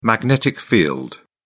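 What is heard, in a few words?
"magnetic field", British English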